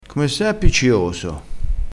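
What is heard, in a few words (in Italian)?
"appiccioso", dialettale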